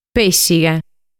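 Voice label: "Pèssighe", Sardinian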